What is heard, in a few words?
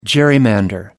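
"gerrymander", British English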